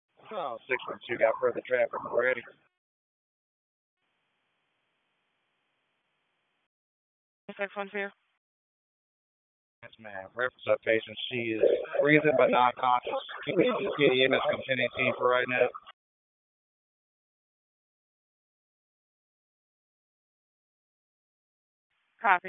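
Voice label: Police Southeast District Dispatch; Raleigh; wake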